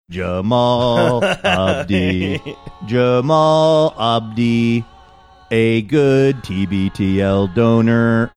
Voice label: saying good donor computer voice; tbtl

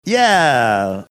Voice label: drawn out "yeah"

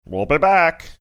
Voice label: saying well be back funny

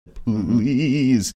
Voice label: please funny

